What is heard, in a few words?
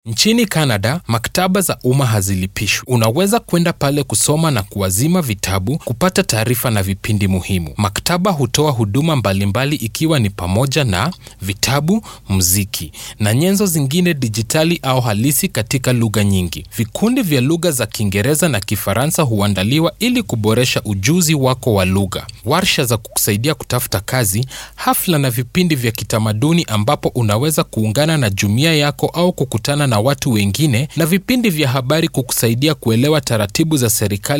kenyan voiceover elearning